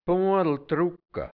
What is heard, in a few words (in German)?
"bua(n)ltrucka", hianzisch Dialekt Burgenland; sehr trocken